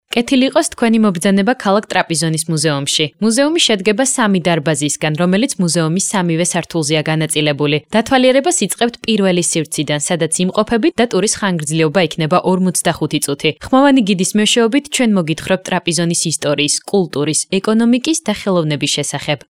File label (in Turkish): Gurcuce Kadin; seslendirme